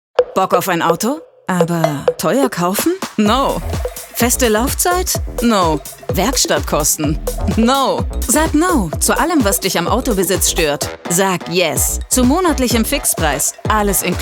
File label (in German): Werbung; sixt